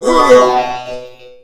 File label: Male Die Robot; gamerip; Mars Sortie